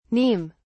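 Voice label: "neem", British English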